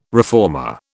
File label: "reformer", British